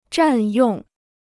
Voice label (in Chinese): "占用", Chinese